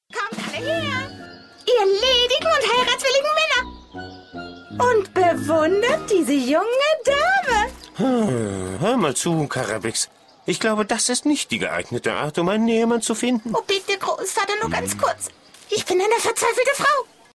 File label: female german cartoon comercial